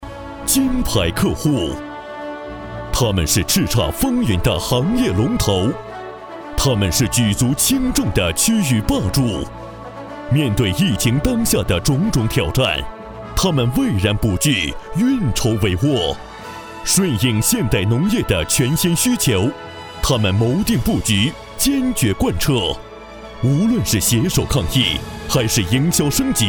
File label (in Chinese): 男 颁奖 抗疫先进工作